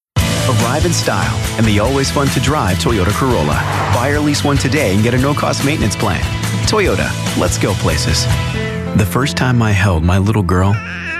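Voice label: commercial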